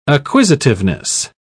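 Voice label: "acquisitiveness", British English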